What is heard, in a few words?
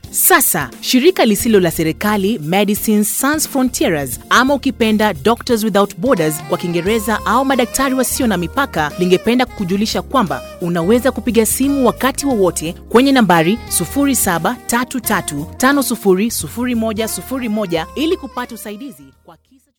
Swahili Native